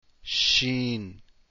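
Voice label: sheen, arabic letters; deen path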